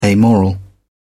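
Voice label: "amoral", English, British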